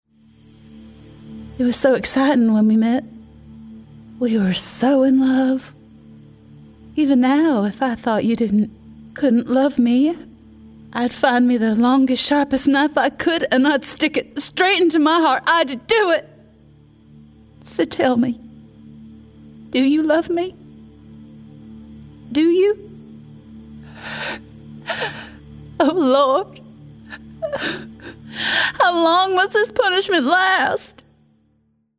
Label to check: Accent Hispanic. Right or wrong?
Wrong